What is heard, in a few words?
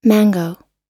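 "mango", US English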